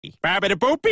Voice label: speaking italian; family guy